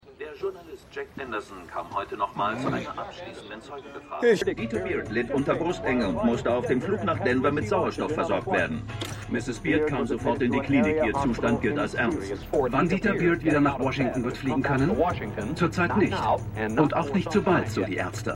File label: Voice Over Reporter; White House Plumbers